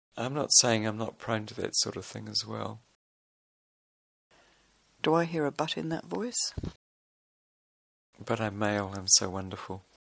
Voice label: male wonderful eileen and andrew